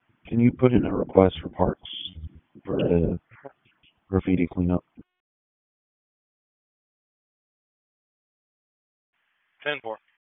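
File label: Police Northeast District Disp, radio; Raleigh; wake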